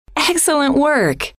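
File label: excellent work, female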